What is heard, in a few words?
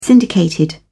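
"syndicated", British English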